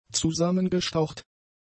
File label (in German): "zusammengestaucht", German; adjectives